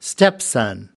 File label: "stepson", us